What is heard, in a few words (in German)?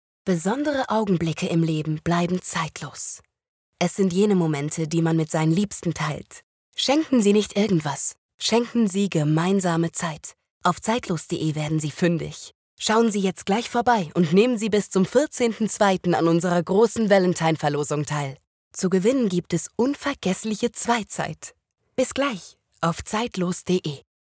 Werbung soft; LOFT VOICES